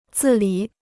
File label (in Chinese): "自理", Chinese